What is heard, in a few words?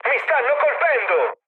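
Italian aircraft damaged mood med, speech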